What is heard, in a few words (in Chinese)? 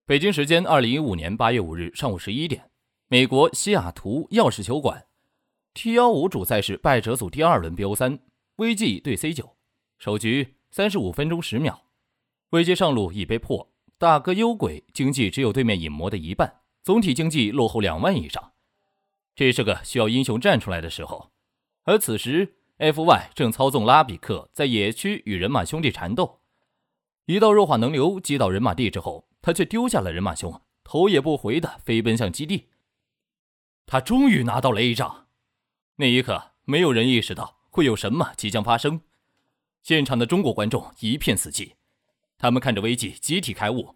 游戏解说; 封神之战